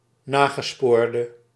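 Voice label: "nagespoorde", Dutch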